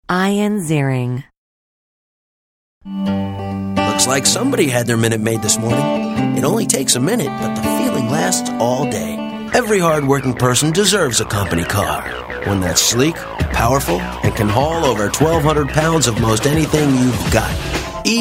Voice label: commercial; Innovative LA